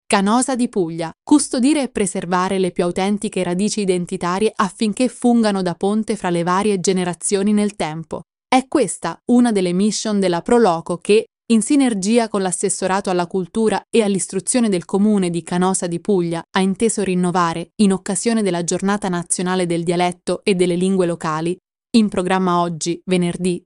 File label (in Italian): dialetto locale; CANOSA DI PUGLIA; Audiolettura